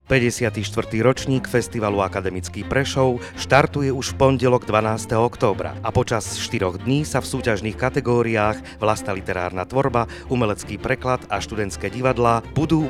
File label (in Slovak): Reklama; Akademický Prešov 2020